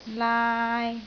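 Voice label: "laai3", Cantonese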